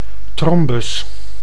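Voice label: "thrombus", Dutch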